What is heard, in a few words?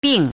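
"bing" fourth tone, pinyin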